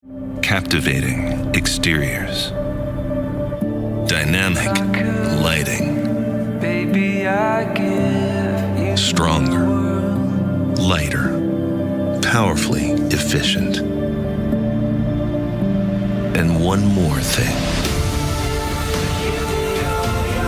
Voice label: Commercial